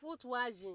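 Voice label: "foot wagen", Nigerian Pidgin, female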